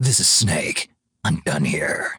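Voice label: Snake voice sample English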